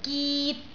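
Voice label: "git6", Cantonese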